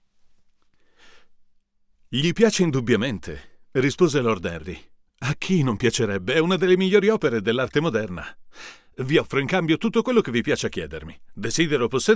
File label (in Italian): U87 per confronto con Rode NTK mod Sovtec RK12